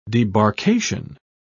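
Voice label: "debarkation", British English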